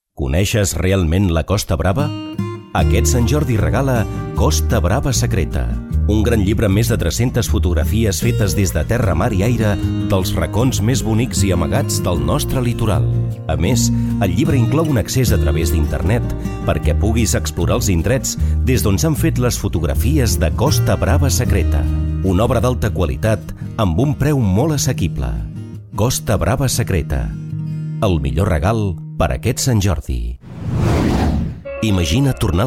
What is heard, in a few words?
catalan commercial; Catalan Espagnol; Espagne